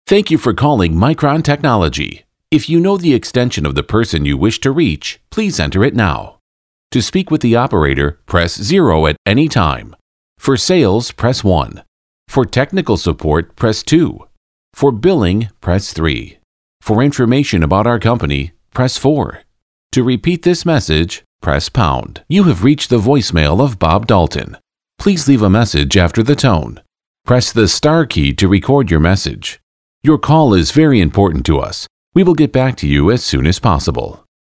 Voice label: US PHONE